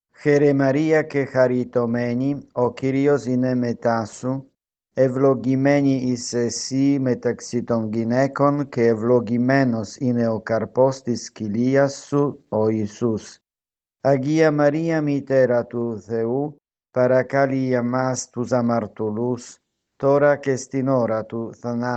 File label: hail mary greek